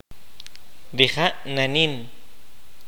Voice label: "he runs", kaqchikel

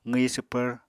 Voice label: "qwi swpvr", Apatani

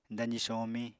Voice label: "dazi somiñ", Apatani

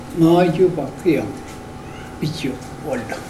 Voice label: I did not give the pig to the man, Mokilese

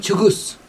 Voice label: "honeybee", Chug

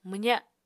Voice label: "mwza", Apatani

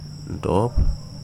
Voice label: "fire", Wahgi; lae unitech

